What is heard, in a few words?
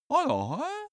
cartoon male agree long